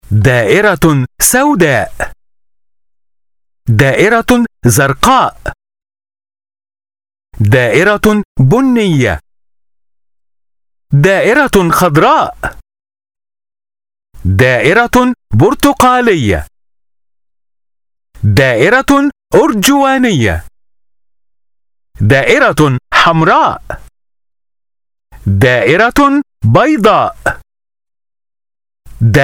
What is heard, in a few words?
Learning Game Young Children; Shape